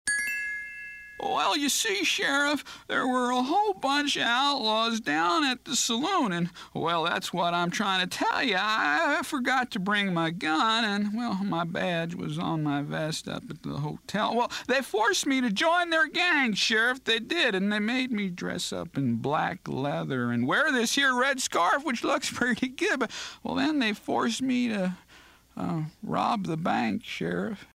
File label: Dumb Deputy, cartoon; animation